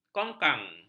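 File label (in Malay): "kongkang", Malay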